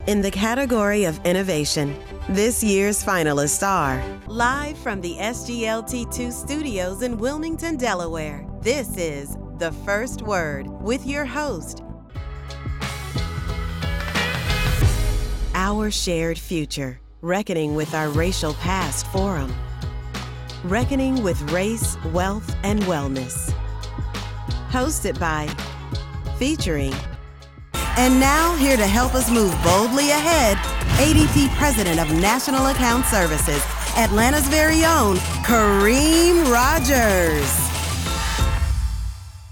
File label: english american announcements